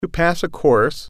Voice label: "pass a course", English

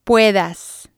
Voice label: "puedas", spanish

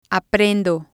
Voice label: "aprendo", spanish

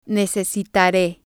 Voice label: "necesitare", spanish

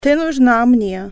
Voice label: i need you female, russian

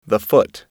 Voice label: "foot", English

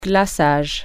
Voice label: "frosting", French

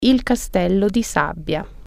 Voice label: sand castle, italian

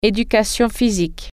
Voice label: "physical education", French

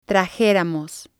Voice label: "trajeramos", Spanish

